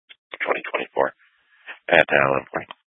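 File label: Troop 2A Dispatch, scanner audio recording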